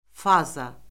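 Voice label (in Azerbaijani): "faza", Azerbaijani; Orfoqrafiya Lüğəti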